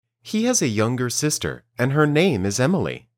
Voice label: Dictation